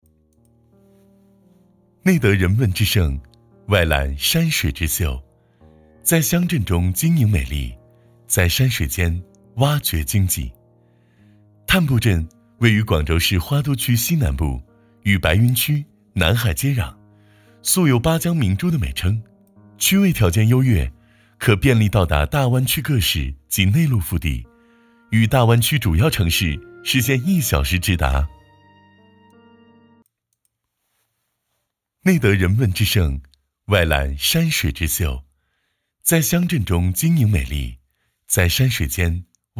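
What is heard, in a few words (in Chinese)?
男【宣传片】招商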